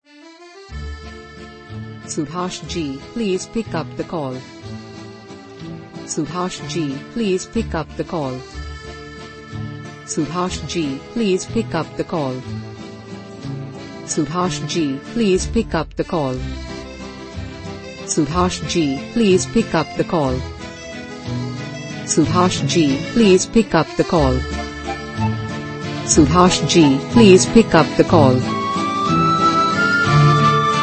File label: Name Ringtone With Music